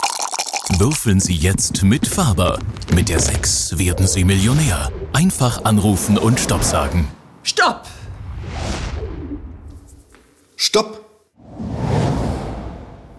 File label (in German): Freundlich